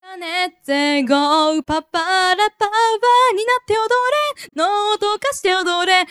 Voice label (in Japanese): リバーブ除去